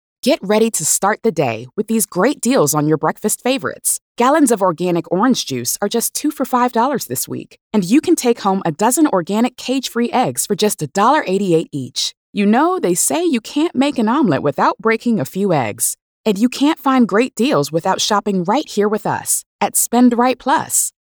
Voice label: Sincere